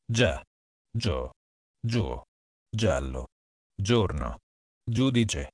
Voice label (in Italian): gia gio giu, Italian